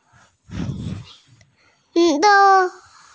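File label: "yi1sheng1" Mandarin Chinese, female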